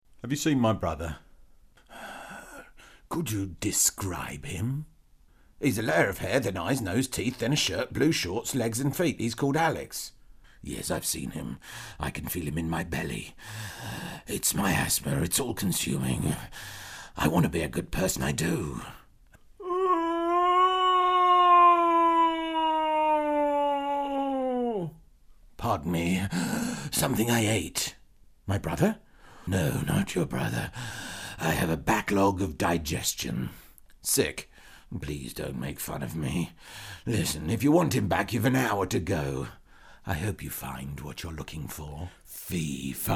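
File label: Childrens Animation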